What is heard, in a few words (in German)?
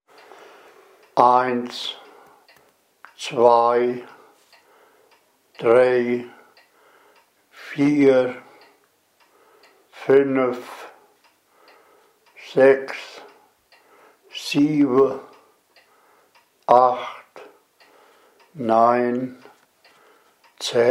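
Zahlen, O-Ton Hunsrück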